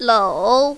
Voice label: "lou3", mandarin, female

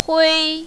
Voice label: "hui1", mandarin, female

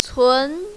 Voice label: "cun2", mandarin, female

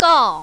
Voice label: "gao4", mandarin, female

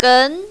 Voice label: "gen2", mandarin, female; 哏

